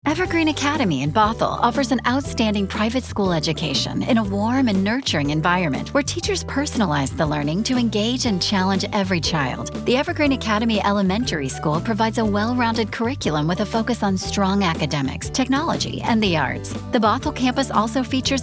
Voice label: Upbeat Radio